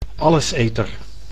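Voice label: "alleseter", Dutch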